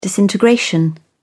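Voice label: "disintegration", English, British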